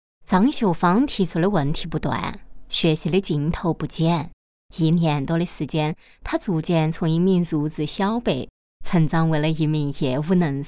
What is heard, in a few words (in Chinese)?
人物四川方言配音